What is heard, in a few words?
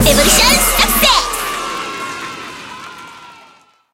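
success, Japanese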